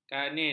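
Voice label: "kanin", Malay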